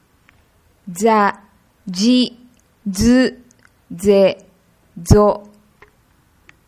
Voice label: zazizuzezo, Japanese